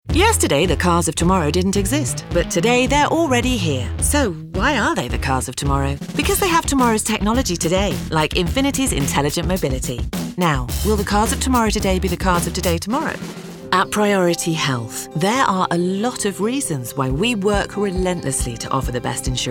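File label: US style millennial commercial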